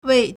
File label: "wei4", Chinese